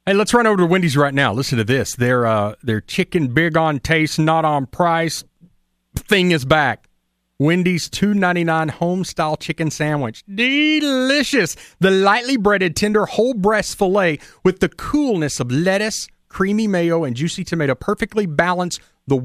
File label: adlib commercial; wendy's